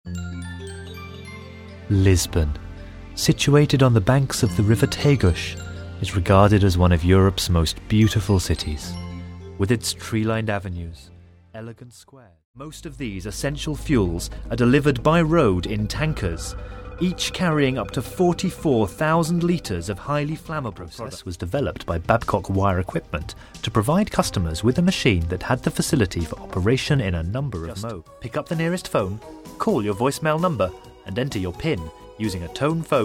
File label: voiceover